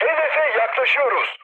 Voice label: near waypoint, mood high, speech tur; War Thunder